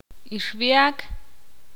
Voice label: ixil; chica

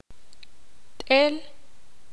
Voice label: ixil; pollo

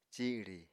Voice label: "ciri", Apatani

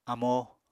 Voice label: "amo", Apatani

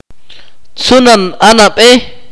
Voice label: "younger sister", Akateko

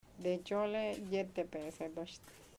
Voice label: tomorrow he wont eat fish, chamacoco

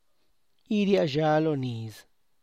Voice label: "iria zha lo niz", Tlacochahuaya Zapotec; select the corn; (Spanish) escoger la mazorca

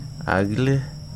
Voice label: "stand", Wahgi; lae unitech

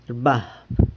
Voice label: "rbab", San Lucas Quiavini; gets itchy

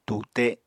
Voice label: "tute", apatani

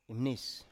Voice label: "imnis", Biak

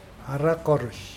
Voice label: "both", Mokilese

